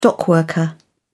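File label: "dockworker", British English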